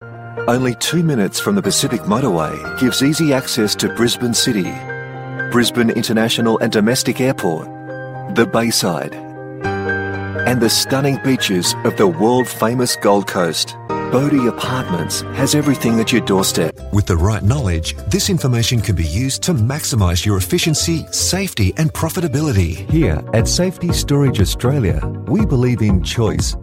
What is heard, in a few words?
Explainer Elearning